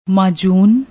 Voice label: "maajoon", Punjabi; ਮਾਜੂਨ